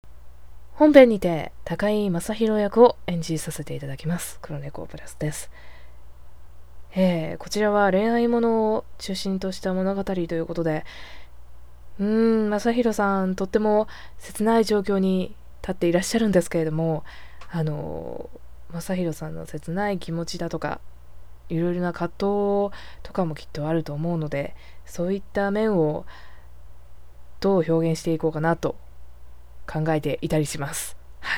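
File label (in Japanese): サンプルボイス, 24歳 穏やかな青年; 駅前の街角にある花屋で働いている、穏やかな青年; 兄の婚約者に恋をしている; 高井真弘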